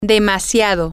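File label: too Much, Spanish, female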